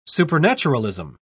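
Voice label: "supernaturalism", English, British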